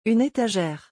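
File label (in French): "une étagère", French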